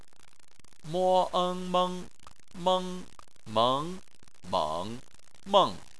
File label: "meng", chinese